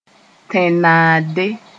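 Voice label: "tẽn-naande", Mooré; globe (earth)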